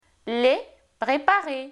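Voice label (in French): les — préparer, French; Phonetique; Voyelles; Lingvo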